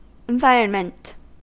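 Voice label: "environment", US English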